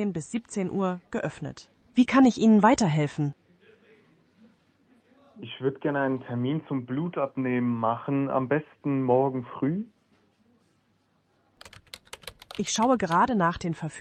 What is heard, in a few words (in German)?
Anruf Transkript Arztpraxis; fonpilot